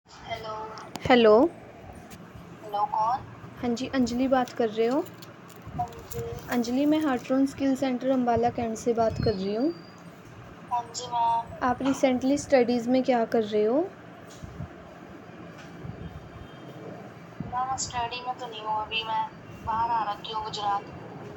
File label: call recording